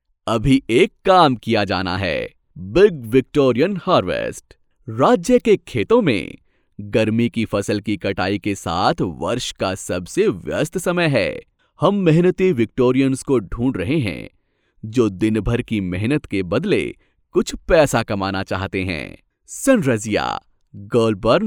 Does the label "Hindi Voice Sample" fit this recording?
Yes